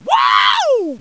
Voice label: Guy Wooow